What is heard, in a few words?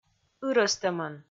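"ырӧстӧмӧн", Komi, female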